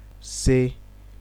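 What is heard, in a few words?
"sey", Nigerian Pidgin, male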